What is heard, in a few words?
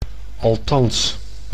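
"althans", Dutch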